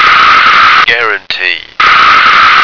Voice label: "guarantee", American